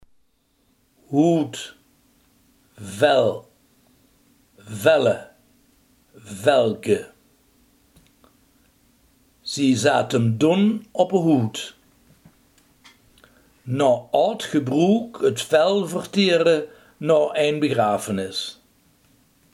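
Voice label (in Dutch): huid, Limburgse gesproken woordenboeken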